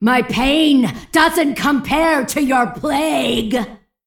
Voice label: Female Kvaldir Play